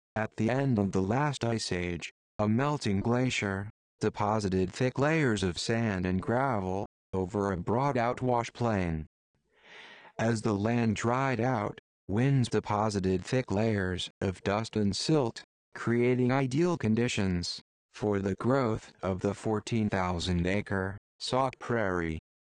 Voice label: GLACIER ANIMATION VO; SAUK PRAIRIE; SAUK PRAIRIE HTS

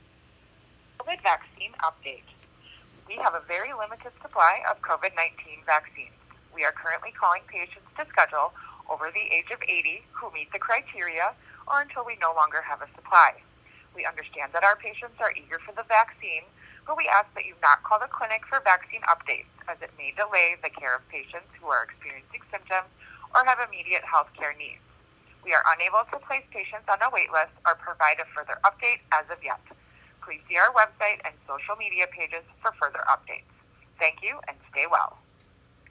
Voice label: phone message; Associated Physicians; COVID vaccinations

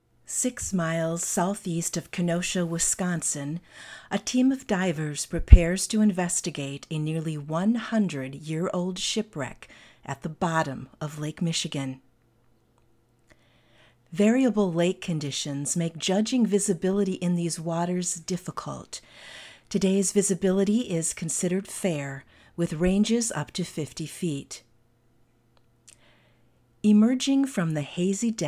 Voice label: Narrator; Shipwrecks

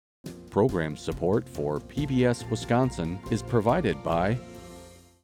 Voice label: Preamble, Male; Promotions; Rebrands

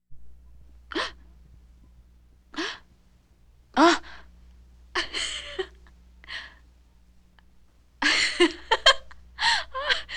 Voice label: Woman Whispers Shushe, Crowd; Poetry Project

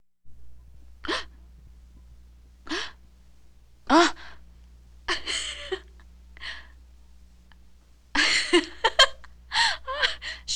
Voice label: Woman Whispers Shushe; Poetry Project

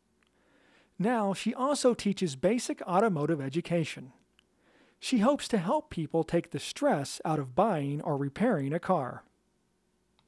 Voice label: Mechanic Shop Femme VO Scratch

